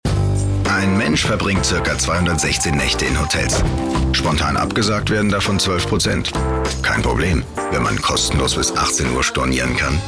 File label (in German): Werbung; HRS